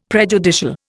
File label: "prejudicial", Indian; Dictionary